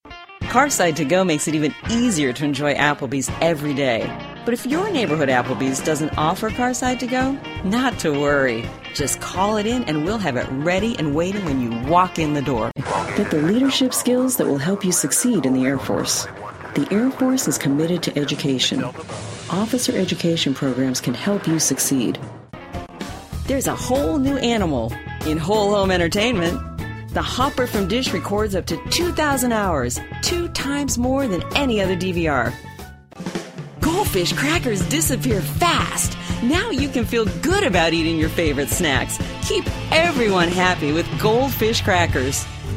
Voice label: Radio